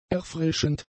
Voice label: "erfrischend", German; adjectives